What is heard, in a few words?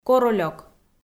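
"королёк" Komi, female; audio dict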